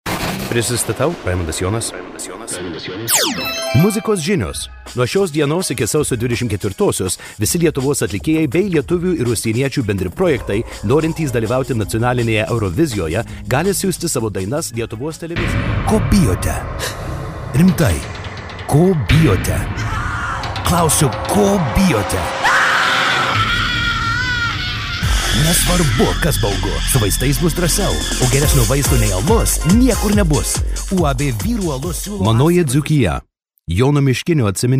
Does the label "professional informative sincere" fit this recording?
No